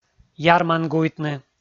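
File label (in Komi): "ярмангуйтны", Komi, male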